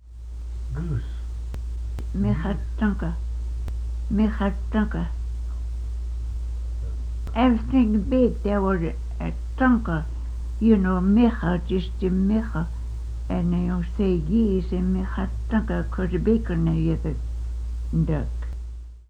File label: goose, quapaw words